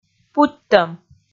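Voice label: "путьтӧм", Komi, female; kpv audio dict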